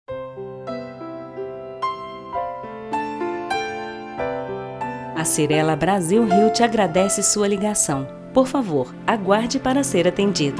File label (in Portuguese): espera neutro; cyrela